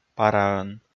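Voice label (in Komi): "параӧн", Komi, male; audio dict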